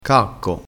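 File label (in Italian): "cacco", dialettale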